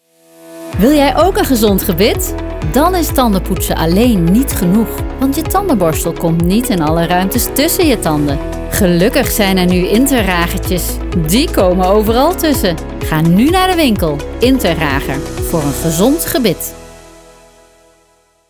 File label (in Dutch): Reclame